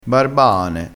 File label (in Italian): "barbaane", crespinese